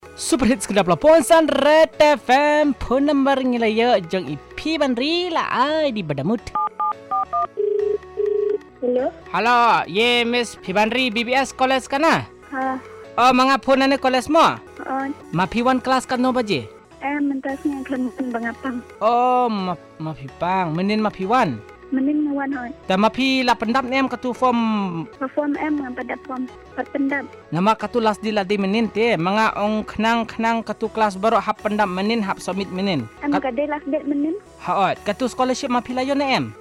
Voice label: Prank call

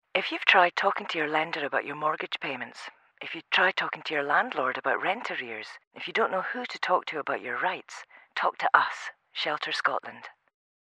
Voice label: radio ad

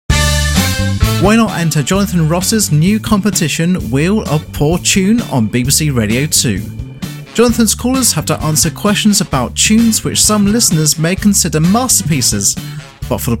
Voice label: radio promo; BBC Radio 2; Jonathan Ross

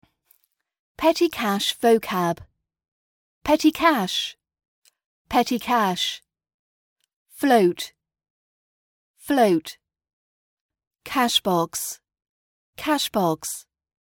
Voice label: petty cash vocab RP British accent training

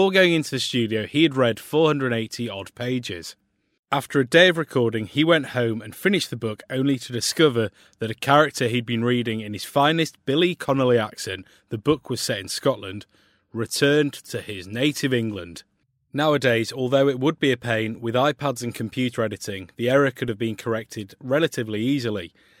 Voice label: talking books narrator; rnib 150 stories

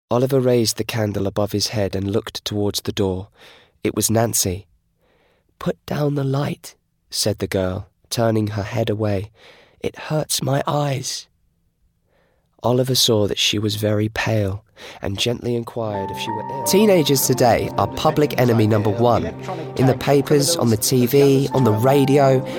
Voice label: narratives

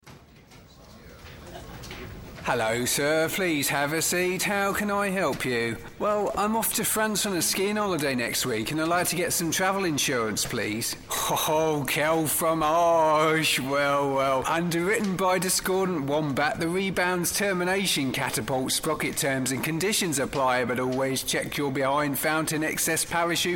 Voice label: advert

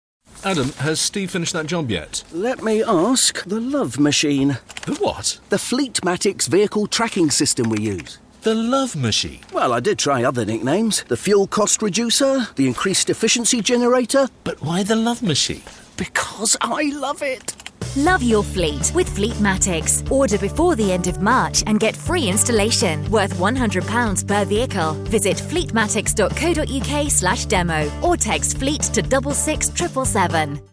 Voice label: radio advertisement; Fleetmatics

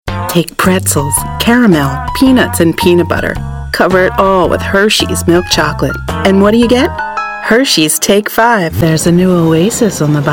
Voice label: commercial

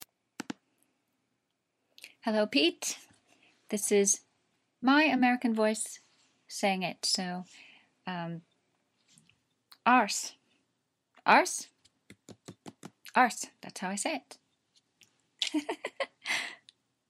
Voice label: my American voice saying arse

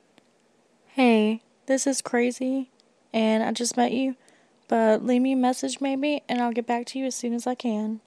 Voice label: voicemail greeting holla

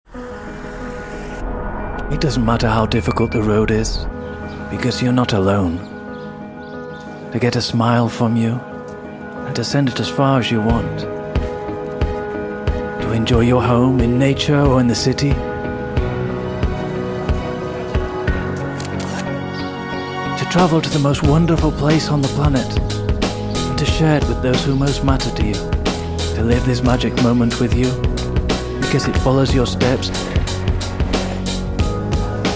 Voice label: English voiceovers advert; madrid spain; locuciones spot ingles; szenio

